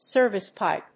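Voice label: "service-pipe", English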